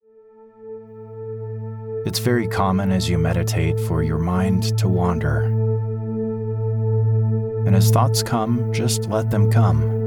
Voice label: Meditation

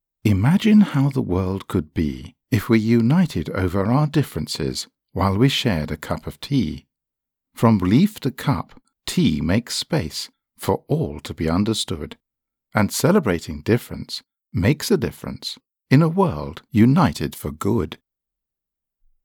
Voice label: Tea Doc Aust; StarNow

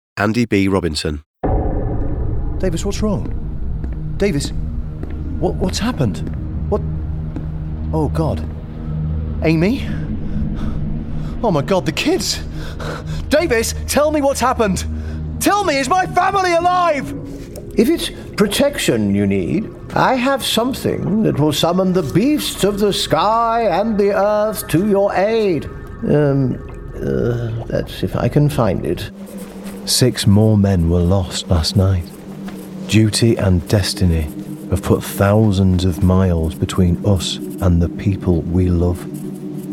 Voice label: video game character voice reel

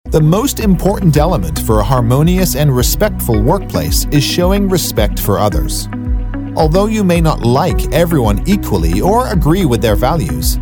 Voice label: E-Learning

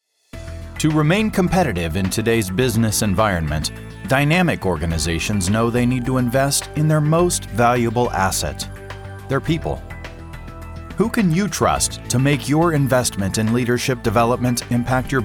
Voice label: Narration

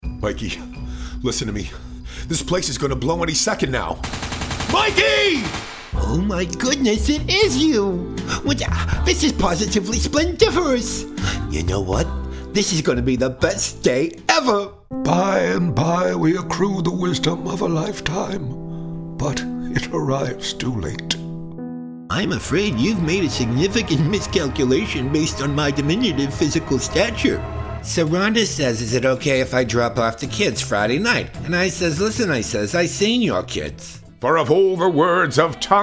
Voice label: Animation Videogames

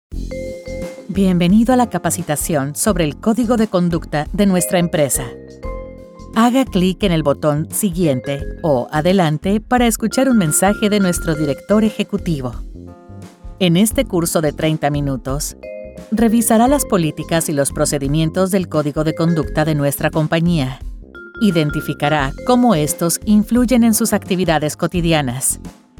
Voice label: e-learning Spanish

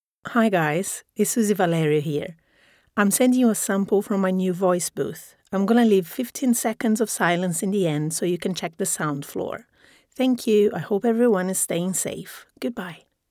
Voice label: Sound Test Raw Audio

